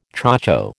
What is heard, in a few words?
"chocho", American